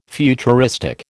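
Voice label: "futuristic", American English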